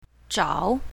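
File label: "zhao3", Chinese pinyin, south, female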